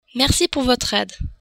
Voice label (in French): merci pour aide, french